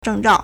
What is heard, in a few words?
"zheng1zhao4", Chinese